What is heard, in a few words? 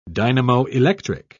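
"dynamoelectric", British English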